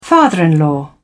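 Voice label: "father-in-law", British English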